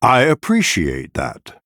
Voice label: Male Night Elf Thanks